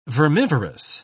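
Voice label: "vermivorous", British English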